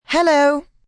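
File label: "Hello" British female; Greetings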